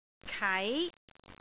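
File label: "kai2", Cantonese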